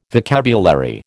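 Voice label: "vocabulary", American English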